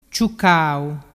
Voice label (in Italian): "ciucau", dialettu